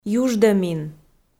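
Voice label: "юждӧмин", Komi, female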